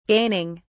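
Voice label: "gaining", British English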